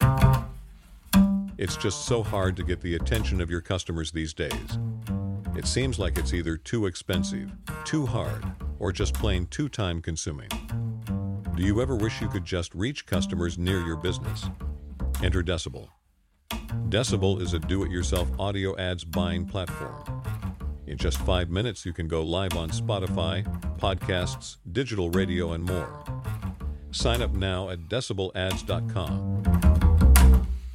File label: ad voice with music